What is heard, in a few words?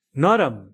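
"soft", English; grade1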